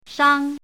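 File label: "shang", pinyin, first tone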